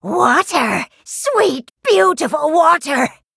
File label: Thirsty Drifter Gnoll Emote Play